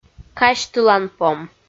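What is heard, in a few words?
"казьтыланпом", Komi, female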